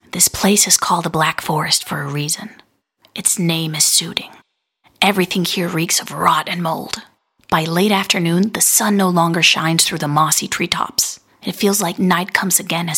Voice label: english us and canada female